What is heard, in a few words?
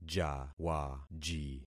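"jawaji", concatenated syllables; Affixing experiment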